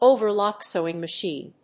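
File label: "overlock sewing machine", English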